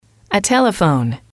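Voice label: "a telephone", English, female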